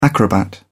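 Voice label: "acrobat", British English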